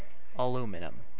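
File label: "aluminum", USA, male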